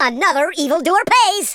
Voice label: "excellent", super hero speech

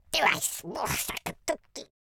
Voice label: angry, speech Patronising

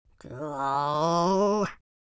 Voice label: sad

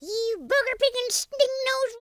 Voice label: angry, speech, Cowgirl

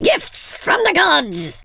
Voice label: drop, Viking, speech

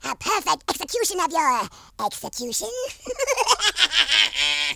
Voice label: flawless, Horror

